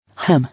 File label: "Hem", English